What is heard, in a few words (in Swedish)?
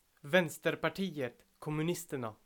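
"Vänsterpartiet Kommunisterna", Swedish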